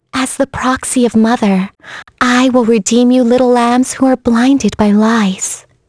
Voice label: vox, en; kingsraid; voices heroes en